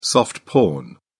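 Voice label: "soft porn", British English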